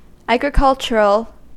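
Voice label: "agricultural", US English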